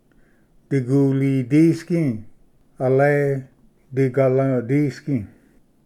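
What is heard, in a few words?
"digulidisgi", Cherokee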